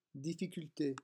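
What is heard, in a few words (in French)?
"difficulte", French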